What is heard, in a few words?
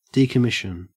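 "decommission", Australian English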